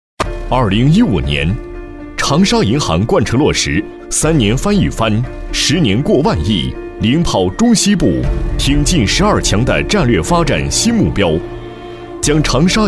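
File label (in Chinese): 男国大音; 长沙银行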